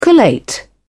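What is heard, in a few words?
"collate", British English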